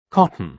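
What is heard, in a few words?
"cotton", British English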